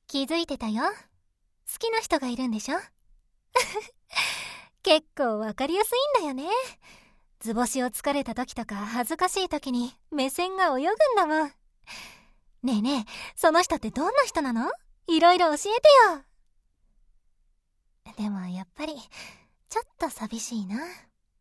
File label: calm and kind woman